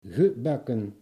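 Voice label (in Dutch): "gebakken", Dutch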